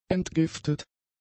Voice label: "entgiftet", German; adjectives

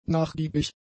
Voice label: "nachgiebig", German; adjectives

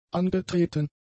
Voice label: "angetreten", German; adjectives

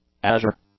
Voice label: "azure", English; adjectives

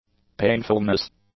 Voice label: "painfulness", English; nouns

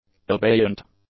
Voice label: "abeyant", english; adjectives

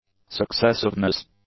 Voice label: "successiveness", english; nouns

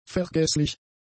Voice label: "vergesslich", German; adjectives

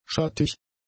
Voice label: "schattig", german; adjectives

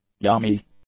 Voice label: "yummy", english; adjectives

